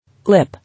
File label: "Lip", English